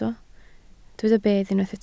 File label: "bedd", Welsh